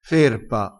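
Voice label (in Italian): "ferpa", vocabolario dialettale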